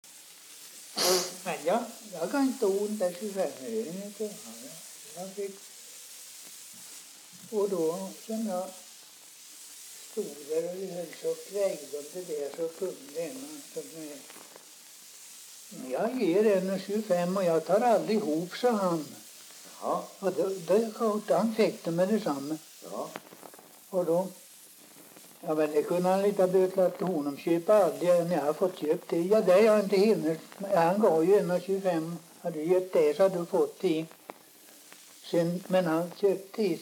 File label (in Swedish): dialekter; Folkminnen; Svenska sagor